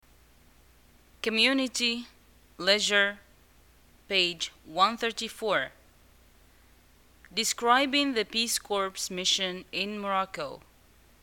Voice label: dialogue, Arabic, North African, Moroccan

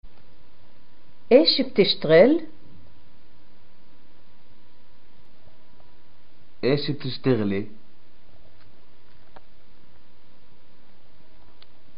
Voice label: what do you do, arabic, pl

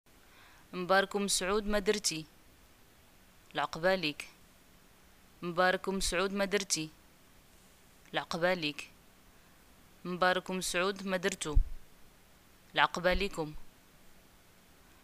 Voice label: greeting marriage, arabic

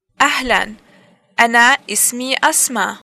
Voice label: introductions hi my name is, arabic levantine

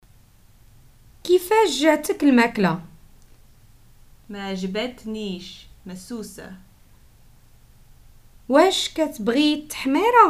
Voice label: prompts, Moroccan Arabic

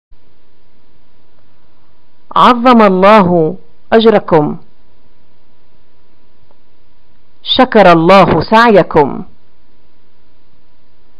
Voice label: greeting death, arabic

